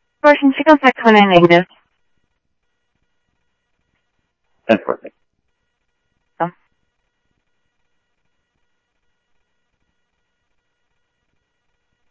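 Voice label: Sheriff Lake Patrol, scanner audio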